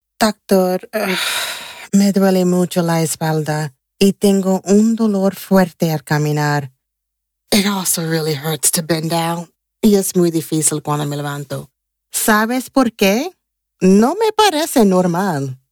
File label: Lower back, Spanish